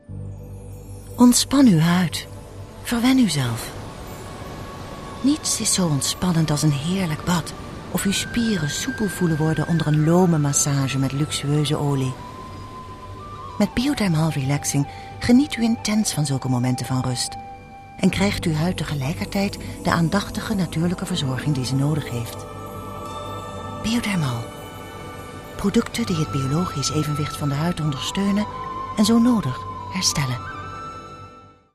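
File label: Dutch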